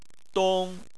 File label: "dong1", Chinese; Chinese Surnames